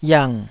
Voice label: "yiang3", Taiwanese